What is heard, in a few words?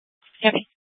Superstition Dispatch, Mesa PD